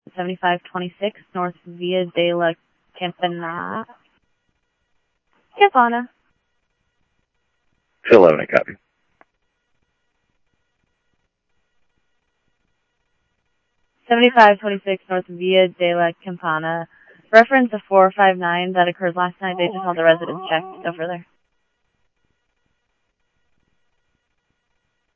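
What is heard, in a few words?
police department, scanner audio trunk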